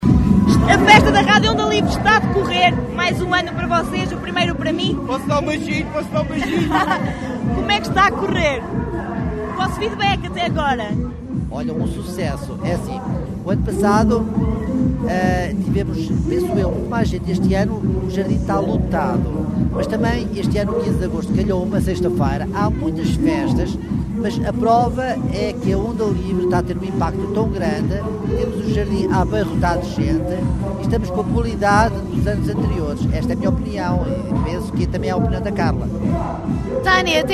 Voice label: vox pop; festa da radio onda livre